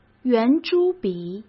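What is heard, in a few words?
"圆珠笔", Mandarin Chinese; hsk; chinese-flashcards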